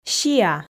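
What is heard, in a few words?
"сиа" Komi, female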